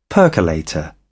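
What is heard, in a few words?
"percolator", British English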